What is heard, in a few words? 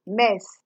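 "mess", Nigerian Pidgin, female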